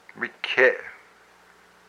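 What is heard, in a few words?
"its tail", Hupa, male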